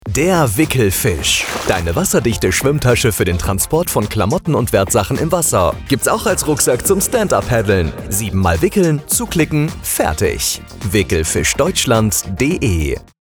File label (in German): Funkspot; Wickelfisch Deutschland